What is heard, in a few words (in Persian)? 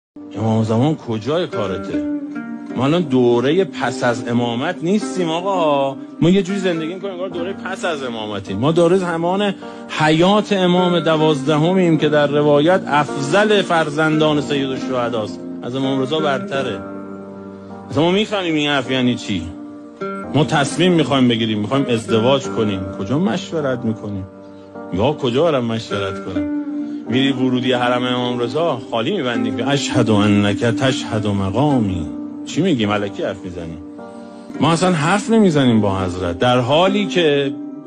سخنرانی